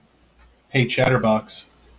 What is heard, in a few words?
hey chatterbox, en us